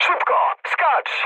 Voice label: bailout mood med, speech pol; War Thunder

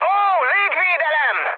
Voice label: damaged aa, mood high, speech hun; War Thunder

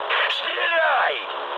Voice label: attack position, mood high, speech ru

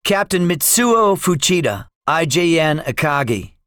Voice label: debriefing, english; midway; War Thunder

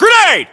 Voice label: inform incoming grenade, US

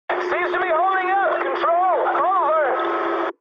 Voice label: eng chat, speech eng

